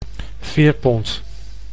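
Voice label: "veerpont", Dutch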